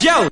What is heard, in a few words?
"yo", voice